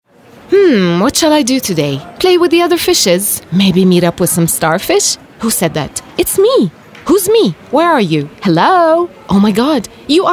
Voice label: American English Radio Spot Character; McDonalds Filet O Fish